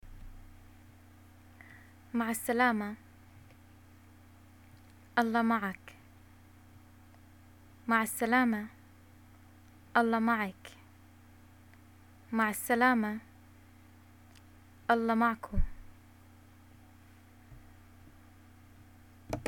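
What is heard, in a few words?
greet goodbye, Jordanian arabic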